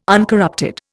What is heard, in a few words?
"uncorrupted", Indian